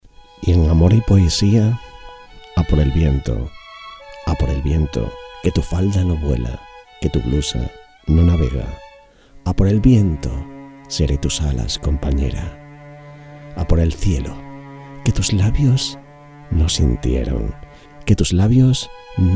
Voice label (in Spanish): poema romantico; a por viento; poesias amor